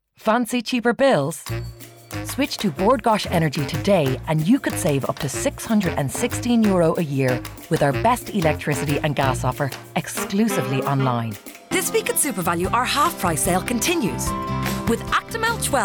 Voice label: female English Irish multiple accents commercial compilation; irish northern